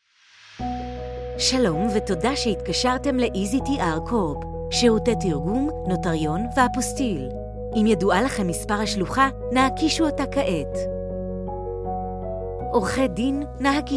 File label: hebrew ivr